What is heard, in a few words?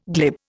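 "glide", Indian; Dictionary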